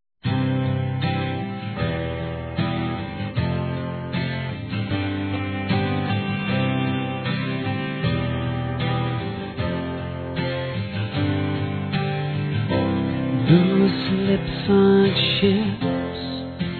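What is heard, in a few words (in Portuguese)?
ROMANTICA, Telemensagens, Românticas masculino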